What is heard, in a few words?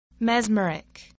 "mesmeric"